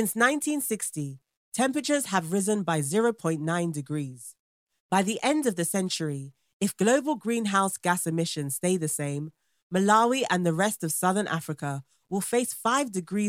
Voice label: female african voice over narrative, african english